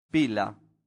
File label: "pila", latin; santillana